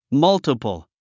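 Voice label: "multiple", English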